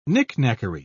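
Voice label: "knickknackery", English, British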